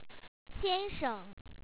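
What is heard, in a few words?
"xia1nshang", Chinese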